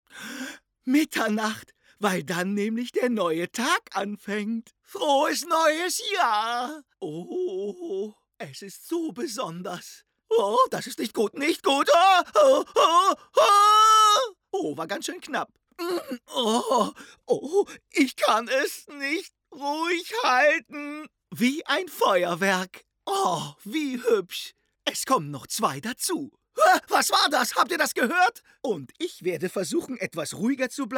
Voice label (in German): Animation; Bob Baumeister